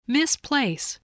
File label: "misplace", voice, spelling